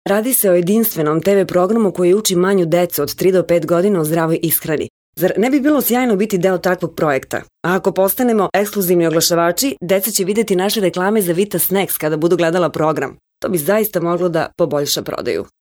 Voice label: female serbian voice over narrative

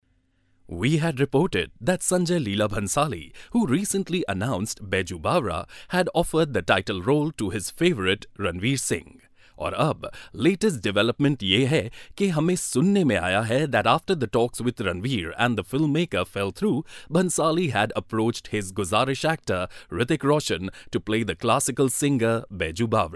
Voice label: male hinglish voice over talent narrative

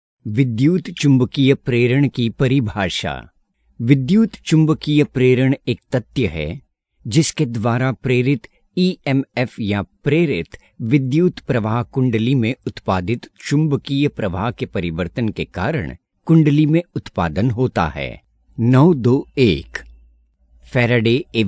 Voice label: male hindi voice over talent narrative

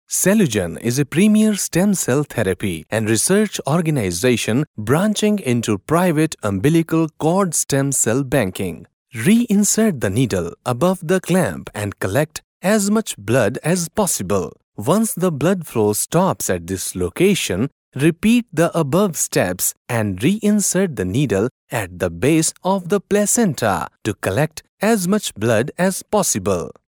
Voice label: male indian voice over narrative

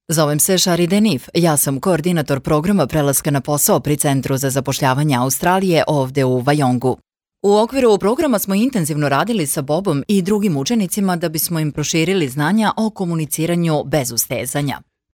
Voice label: female serbian voice over narrative

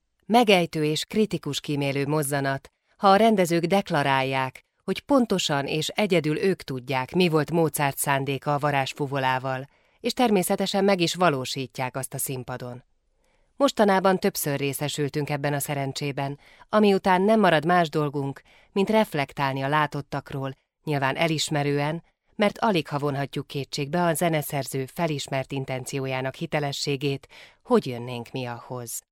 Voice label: female hungarian voice over narrative

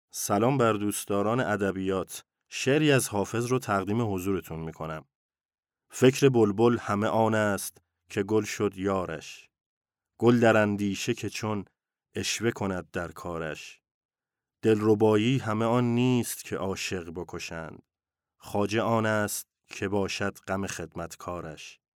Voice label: male farsi voice over talent narrative

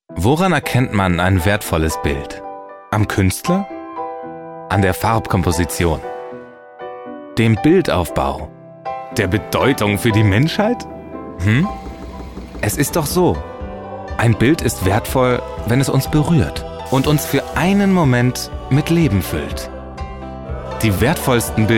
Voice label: male german voice over character

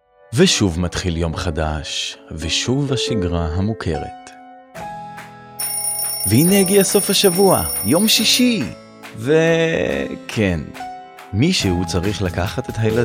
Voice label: male hebrew voice over sales

